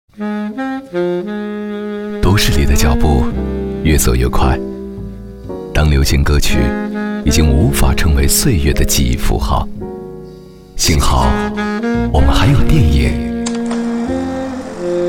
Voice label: 节目片花

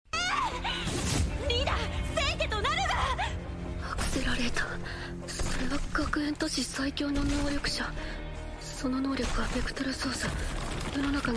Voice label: 日语配音

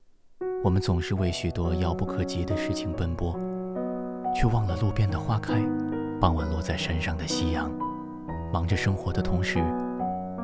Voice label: 情感独白

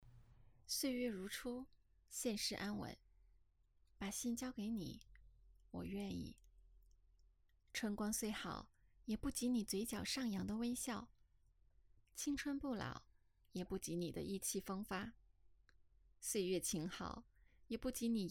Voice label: 美文配音

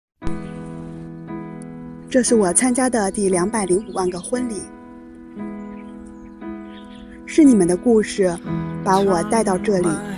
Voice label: 女独白温柔抒情自然甜美

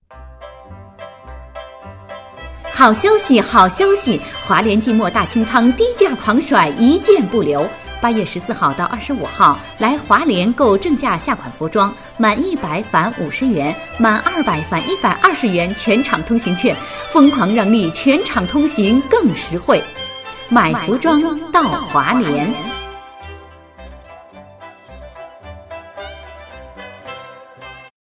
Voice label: 商场甩卖快节奏有激情

